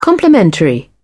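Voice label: "complimentary", British English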